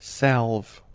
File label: "sealf", Anglo Saxon